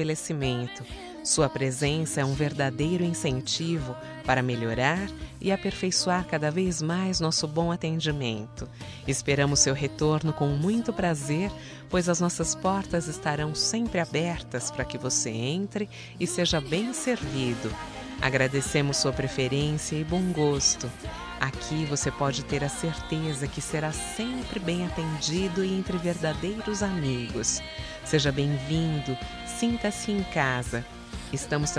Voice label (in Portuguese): Cliente bancario - F, Telemensagens Empresarial; fem; Telemensagens 2017